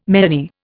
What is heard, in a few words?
"meany", Indian